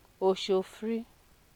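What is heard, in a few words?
"osho free", Nigerian Pidgin, female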